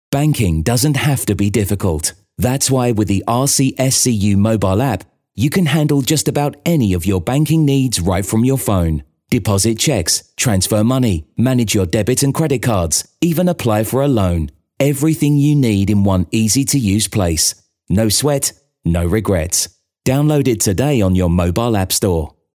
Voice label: Professional